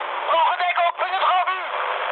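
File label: German aircraft damaged mood high, speech; War Thunder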